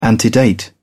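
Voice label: "antedate", British English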